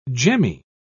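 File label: "gemmy", British English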